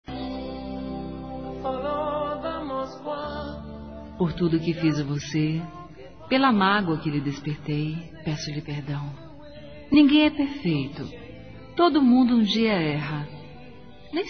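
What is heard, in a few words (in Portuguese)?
Desculpas geral, Telemensagens, female; Telemensagens 2017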